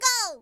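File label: "go", Japanese, woman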